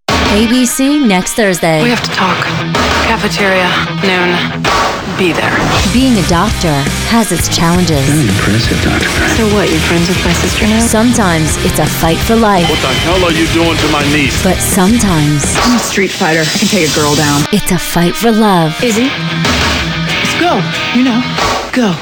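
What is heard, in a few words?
voice over overall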